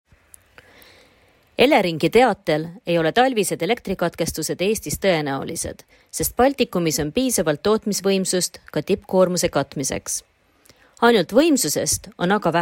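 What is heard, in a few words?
Estonian, VO Sample; GoLocalise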